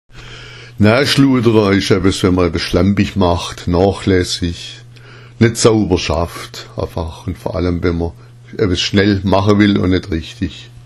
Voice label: "schludera", schwaebisch; schludera ist schlampig, eine nachlässige, unsaubere Art zu arbeiten

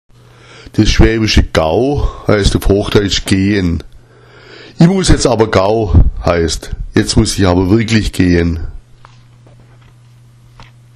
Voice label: gau, schwaebisch; Gau heißt auf hochdeutsch gehen.

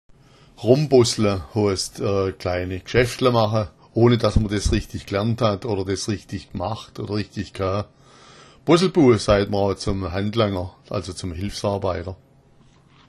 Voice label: bossla, schwaebisch; bossla, rombossla

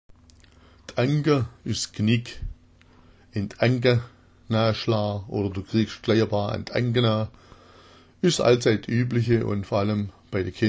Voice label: "anga", schwaebisch

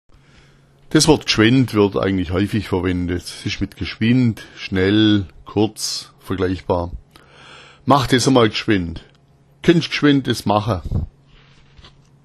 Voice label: gschwend, schwaebisch

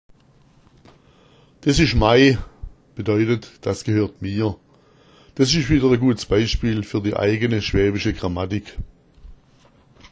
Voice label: des isch mei, schwaebisch; des isch mei heißt: das gehört mir.

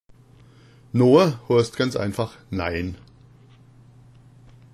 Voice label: "noa", schwaebisch

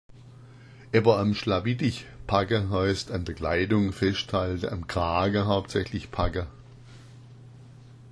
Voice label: "schlawiddich", schwaebisch; Jemand am Schlawiddich packen, an der Kleidung. am Kragen, packen festhalten.

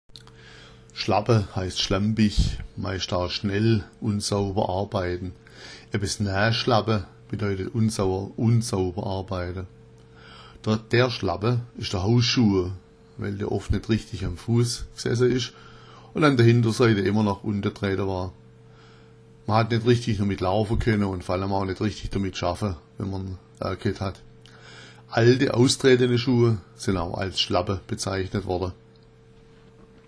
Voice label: "schlappa", schwaebisch

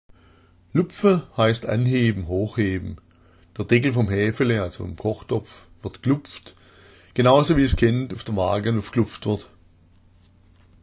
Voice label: "lupfa", schwaebisch

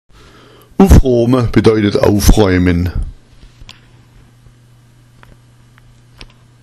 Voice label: "uffroama", schwaebisch; uff’roama bedeutet aufräumen

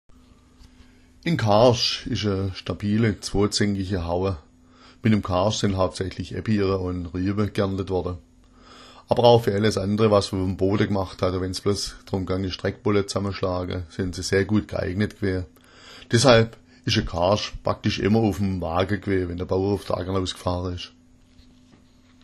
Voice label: "karst", schwaebisch

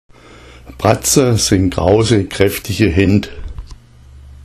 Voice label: pratza, schwaebisch; Pratza sind sehr große kräftige Hände

